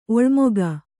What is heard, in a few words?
"ಒಲ್ಮೆಗ", Kannada